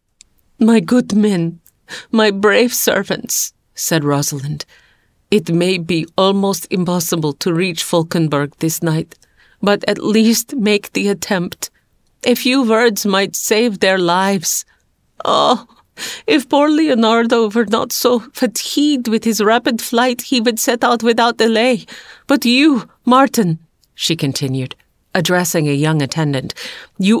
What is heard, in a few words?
Audiobook Sample; The White Dove